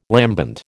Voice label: "lambent", American